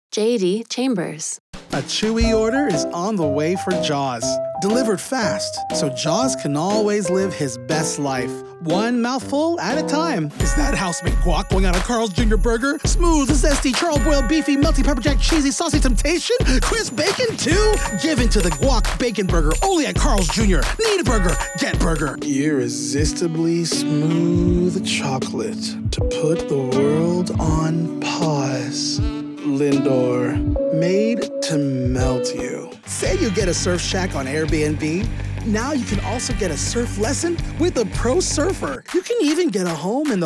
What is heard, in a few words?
voiceover; demo